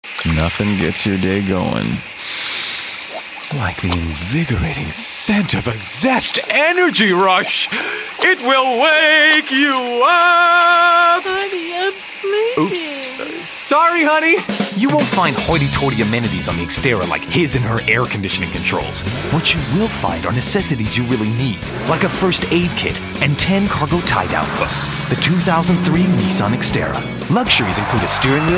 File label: Commercial